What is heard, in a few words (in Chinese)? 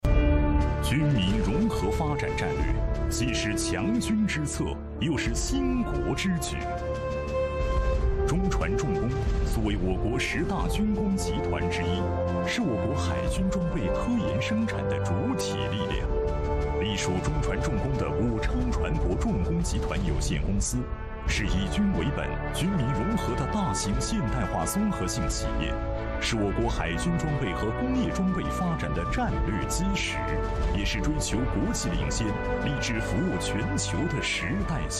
男 宣传片; CCTV1中船重工